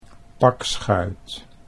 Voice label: "pakschuit", Dutch